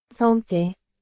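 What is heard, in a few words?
Burmese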